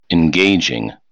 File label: "engaging", English